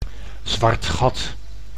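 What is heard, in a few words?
"zwart gat", Dutch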